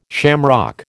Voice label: "shamrock", American

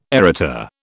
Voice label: "Arita", British

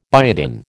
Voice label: "biotin", American

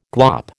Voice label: "glop", American

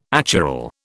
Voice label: "achiral", British

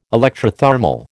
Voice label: "electrothermal", American

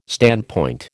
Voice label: "standpoint", American

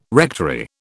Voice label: "rectory", British

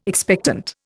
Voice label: "expectant", Indian

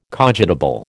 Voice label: "cogitable", American

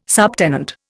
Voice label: "subtenant", Indian

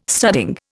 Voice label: "studding", Indian

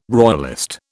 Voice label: "royalist", British

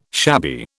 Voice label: "shabby", British

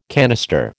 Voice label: "canister", American

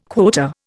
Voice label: "quota", Indian

